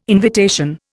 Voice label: "invitation", Indian

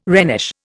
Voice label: "Rhenish", Indian

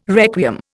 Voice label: "requiem", Indian

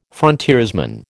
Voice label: "frontiersman", American

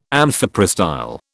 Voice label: "amphiprostyle", British

